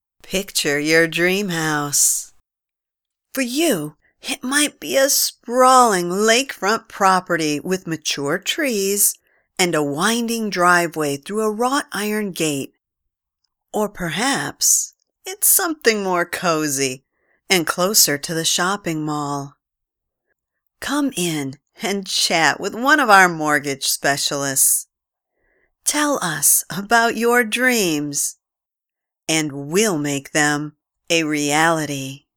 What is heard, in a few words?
radio realestate